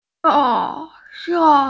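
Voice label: "se4" Chinese, female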